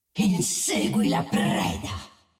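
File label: Italian; 奥丽莎; 守望先锋2; 终极技能; Insegui la preda!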